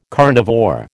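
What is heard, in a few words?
"carnivore", American English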